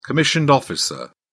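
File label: "commissioned officer", English, British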